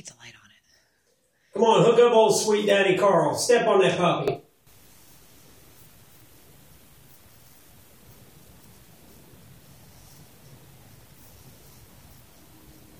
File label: weird mumbling